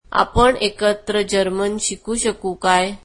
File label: marathi can we learn German together